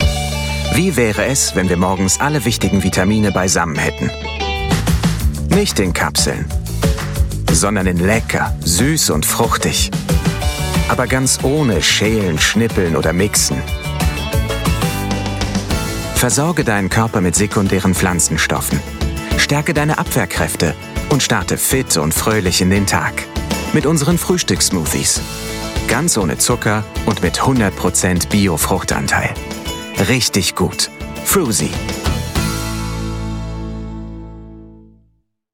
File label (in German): Werbung; Froosie